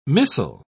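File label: "missal", British English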